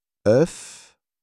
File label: "oeuf", French